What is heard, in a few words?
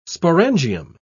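"sporangium", British English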